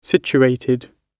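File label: "situated", British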